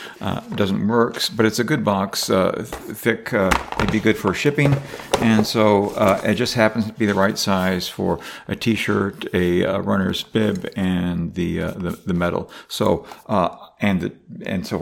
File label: Voicemail